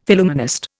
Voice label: "Phillumenist", Indian; Dictionary

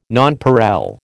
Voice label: "nonpareil", American

